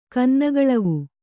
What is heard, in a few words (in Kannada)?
"ಕನ್ನಗಳವು", Kannada